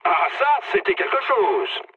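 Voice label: French trick mood low; War Thunder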